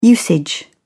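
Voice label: "usage", British English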